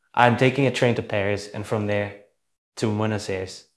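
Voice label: Voice Acting, Spanish, male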